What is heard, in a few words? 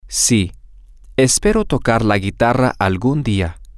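yes I hope to play the guitar some day, Spanish, male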